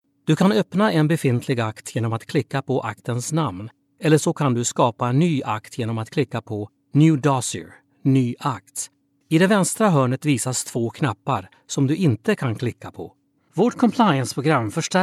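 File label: e-learning swedish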